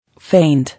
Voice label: "Feint", English

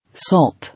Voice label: "Salt", English

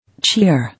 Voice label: "Cheer", English

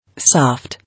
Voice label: "Soft", English

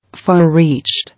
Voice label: "Far reached", English

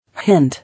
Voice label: "Hint", English

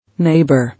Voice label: "Neighbour", English

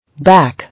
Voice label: "Back", English

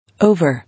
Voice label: "Over", English

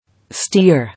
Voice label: "Steer", English

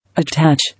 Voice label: "Attach", English

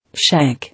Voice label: "Shank", English

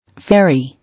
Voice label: "Fairy", English

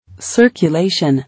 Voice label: "Circulation", English

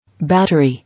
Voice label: "Battery", English

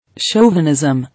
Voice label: "Chauvinism", English

